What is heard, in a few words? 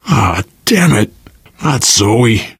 grief teen girl, survivor voice; source engine; left 4 dead 2